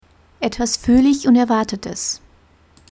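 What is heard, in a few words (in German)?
etwas völlig Unerwartetes, German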